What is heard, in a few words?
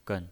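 "gen", Mandarin Chinese, neutral tone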